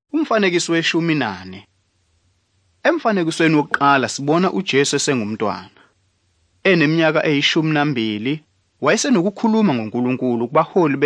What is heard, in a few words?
zulu bible; good news